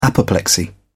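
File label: "apoplexy", British English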